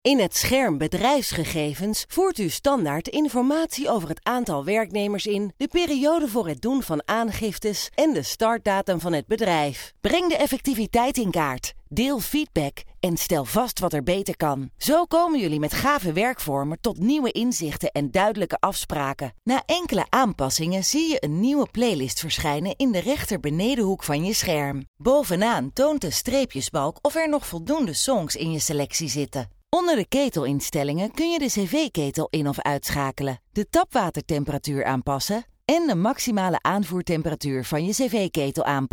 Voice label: E-learning

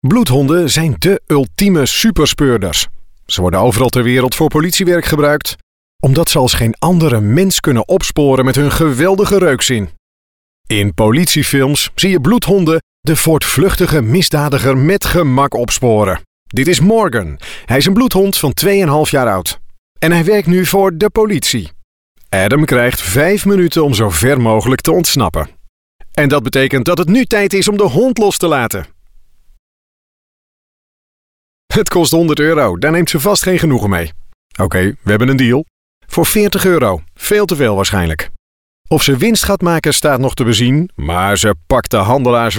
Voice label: TV ENTERTAINMENT

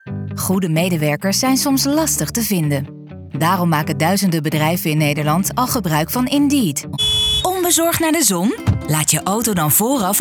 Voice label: Commercials Algemeen

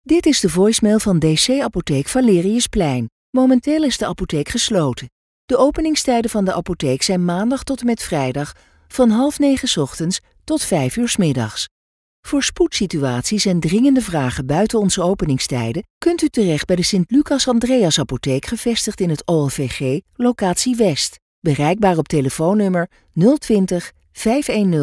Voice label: Dutch IVR

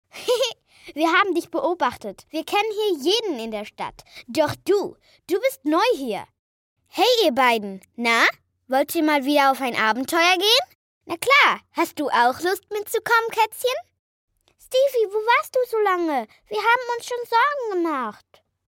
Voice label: youtube character German

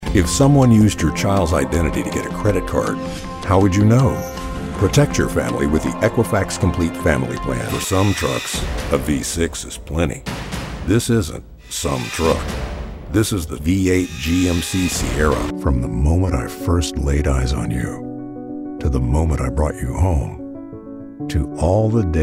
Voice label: Commercial

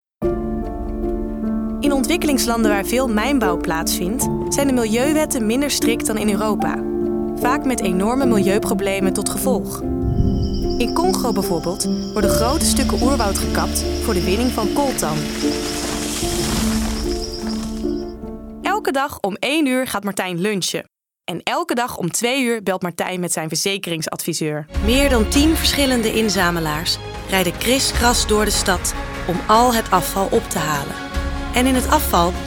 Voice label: VoiceDemo

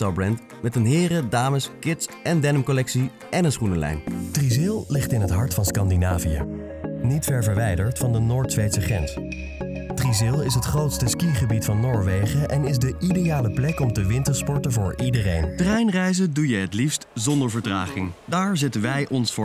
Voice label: Infomercial Webvideo Explanimation Voice over; Stemacteur

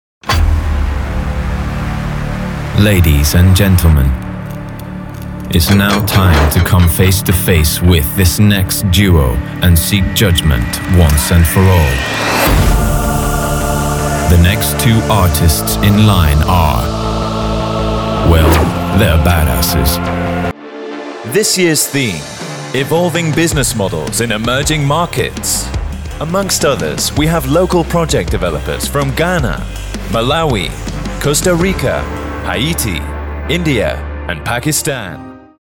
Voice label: VOICE OF GOD EVENT

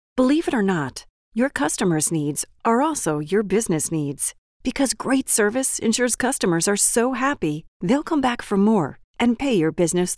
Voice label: Corporate Narration

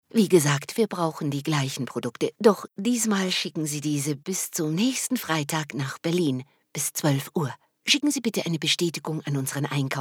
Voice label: GER

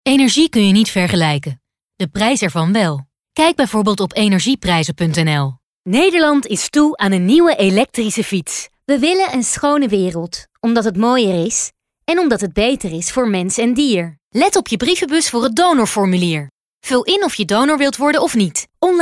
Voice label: Corporate

